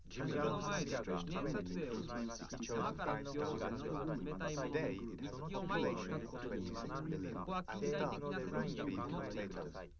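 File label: male live recording 130ms 1m mix; SiSEC10; underdetermined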